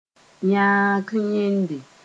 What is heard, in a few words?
"yaang yẽnde", Moore; wisdom tooth